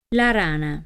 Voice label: "frog", italian